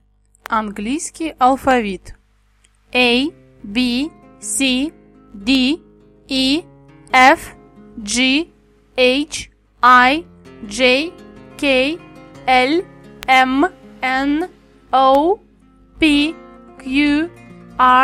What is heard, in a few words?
English alphabet